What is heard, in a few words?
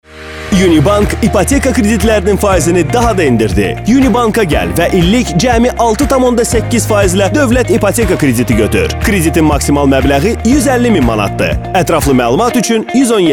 Azerbaijan Male